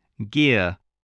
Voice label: "gear", voice

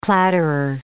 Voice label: "clatterer", English

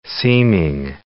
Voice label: "seeming", English

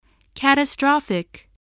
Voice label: "catastrophic", spelling

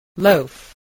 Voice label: "loaf", spelling

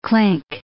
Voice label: "clink", English

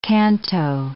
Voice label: "canto", English

